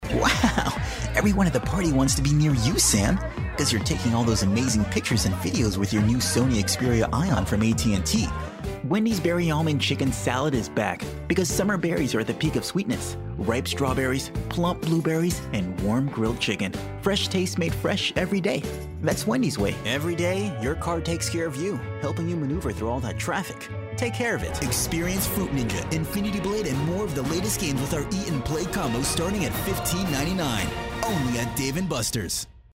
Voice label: Commerical